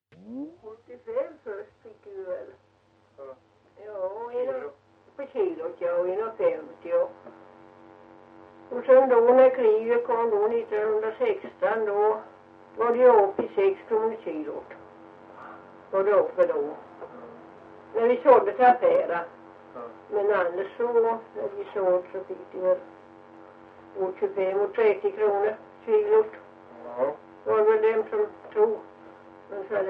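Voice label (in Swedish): Ventlinge Öland, dialekter; Folkminnen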